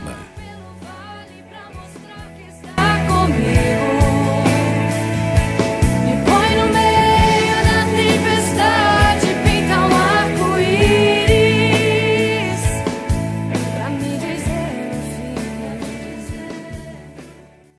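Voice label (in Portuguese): Aniversário Evangélico para Irmã Masculino, telemensagem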